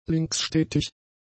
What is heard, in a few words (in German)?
"linksstetig", German; adjectives